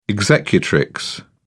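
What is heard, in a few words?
"executrix", British English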